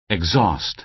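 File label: "exhaust", American English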